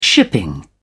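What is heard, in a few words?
"shipping", British English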